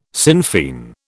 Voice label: "Sinn Fein", British